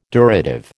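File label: "durative", American English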